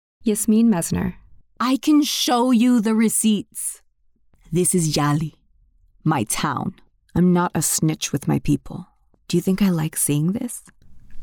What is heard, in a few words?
women video games; Warm, Inspirational, Sophisticated, Soothing, Edgy, Inviting, Authentic.